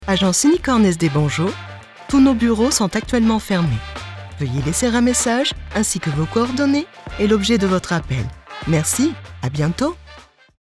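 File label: French female Message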